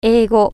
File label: english, japanese